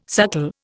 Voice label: "suckle", Indian